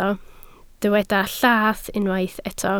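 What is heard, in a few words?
"llath" Welsh, spoken word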